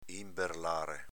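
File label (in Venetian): "inberlare", Veneto dialect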